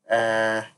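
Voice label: "ehn", Nigerian Pidgin, male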